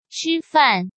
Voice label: "吃饭", Chinese; Chinese Grammar Wiki

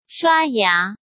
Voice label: "刷牙", Chinese

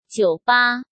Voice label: "酒吧", Chinese; Chinese Grammar Wiki

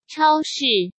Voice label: "超市", Chinese; Chinese Grammar Wiki

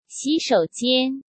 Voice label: "洗手间", Chinese

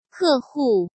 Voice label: "客户", Chinese

